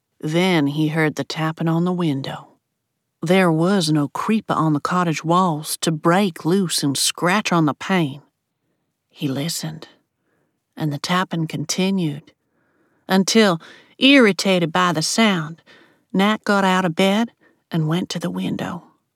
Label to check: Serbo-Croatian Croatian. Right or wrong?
Wrong